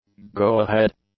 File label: "go-ahead", english; adjectives